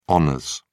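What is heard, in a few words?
"hons", British English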